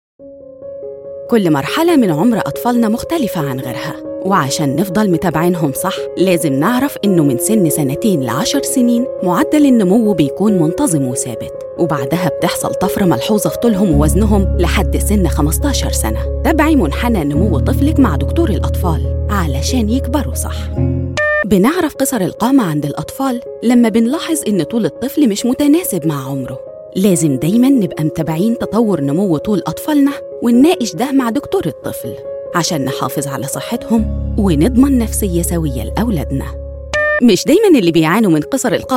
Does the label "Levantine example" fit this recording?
No